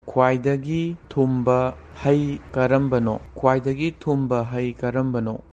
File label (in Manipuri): "Khwaidagi thumba gei karambano?", Manipuri; Which is the sweetest fruit?